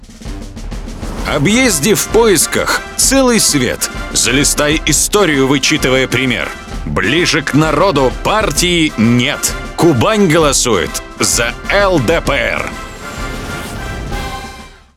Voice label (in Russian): diktor; VGTRK